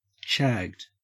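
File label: "shagged", Australian English; pronunciations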